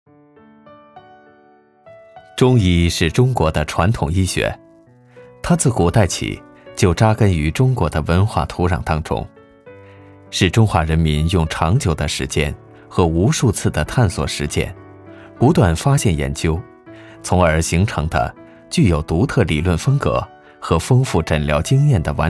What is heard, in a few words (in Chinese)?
男 人物讲述